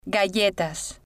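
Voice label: "galletas", Spanish, female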